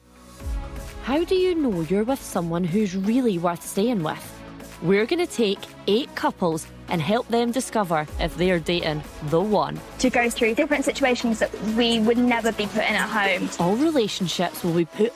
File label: Narration